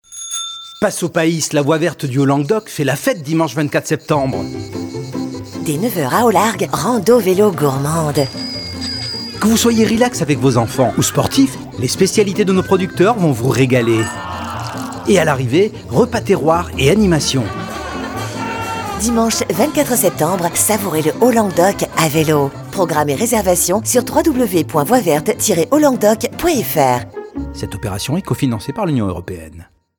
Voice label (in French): spot radio; pnr haut languedoc; fb herault